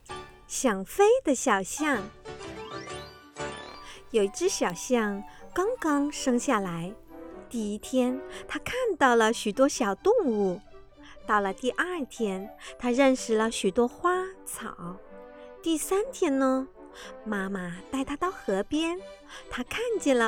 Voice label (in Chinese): 儿童 亲子